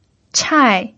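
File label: "chai", pinyin, fourth tone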